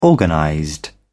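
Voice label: "organized", British English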